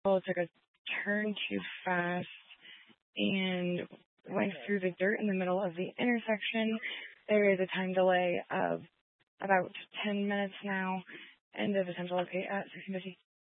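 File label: Incident Tactical 3, Scanner audio recording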